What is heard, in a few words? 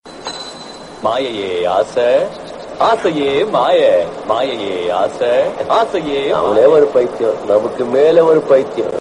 Film Dialogue; Baba